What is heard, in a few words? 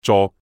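"co6", Cantonese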